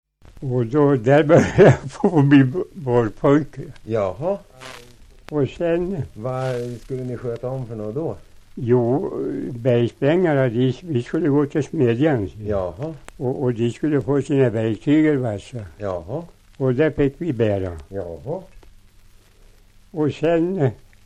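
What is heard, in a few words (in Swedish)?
Säterbo Västmanland, dialekter; Folkminnen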